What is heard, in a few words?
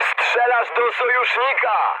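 player friendly fire, mood med, Polish speech; War Thunder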